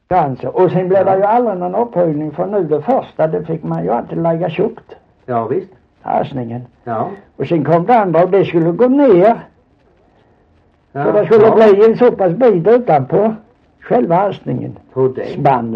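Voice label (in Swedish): Holm Halland, dialekter; Folkminnen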